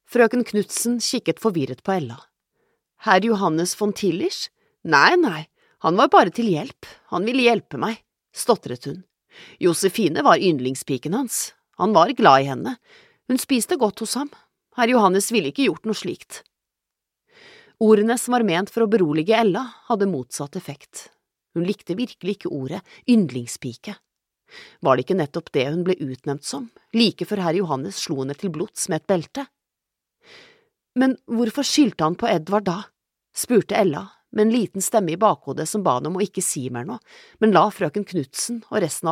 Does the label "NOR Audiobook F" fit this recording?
Yes